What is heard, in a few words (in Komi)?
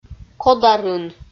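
"кодарын", Komi, female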